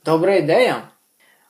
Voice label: dialogue, Ukrainian